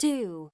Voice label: lady